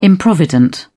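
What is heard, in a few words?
"improvident", British English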